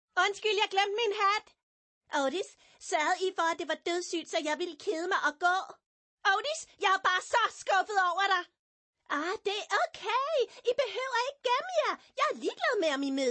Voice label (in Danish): speak tegnefilm